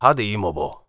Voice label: warrior conversation emotion neutral

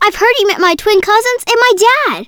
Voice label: Voices Speech Girl; Maze-Girl

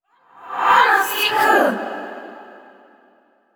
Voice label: Angry; Yamiko

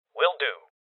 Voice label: Voices Confirmation Male Young; rebel cops 2019 gamerip

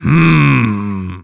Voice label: Warrior emotion thinking; Aragami

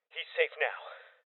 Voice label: Cop Evacuated Male Young; rebel cops 2019 gamerip

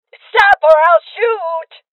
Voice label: Voices Freeze Female Old; rebel cops 2019 gamerip

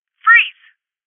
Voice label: Voices Freeze Female

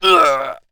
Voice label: warrior kill aerial

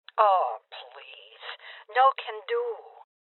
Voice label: Voices Refuse Female Old; rebel cops 2019

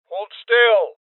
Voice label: Voices Freeze Male Old; rebel cops 2019 gamerip

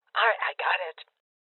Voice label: Confirmation, Female Old

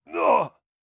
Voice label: hurt

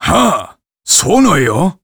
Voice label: Warrior emotion curious

